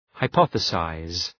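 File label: "hypothesize", English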